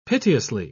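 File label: "piteously", British English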